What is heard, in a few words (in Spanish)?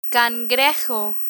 "cangrejo", Spanish; spanish verbs; learn spanish